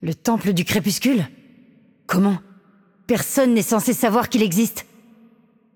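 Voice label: voice over, French; Game Outro; bloom minigame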